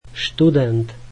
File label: slovak student